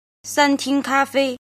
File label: "jin", Chinese